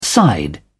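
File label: "side", British English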